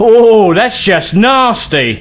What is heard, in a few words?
Taunt, male; svencoop